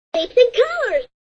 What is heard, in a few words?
Cartoon voice says Shapes and Colors; Toy Voices; Toys